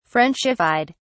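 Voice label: "frenchified", British English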